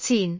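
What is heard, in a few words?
"cin4", Cantonese; microsoftHiuGaai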